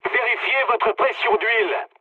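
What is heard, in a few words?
damaged oil, mood low, French; War Thunder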